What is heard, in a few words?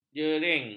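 "jering", Malay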